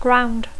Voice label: "ground", English; terra; terreny